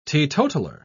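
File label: "teetotaler", British English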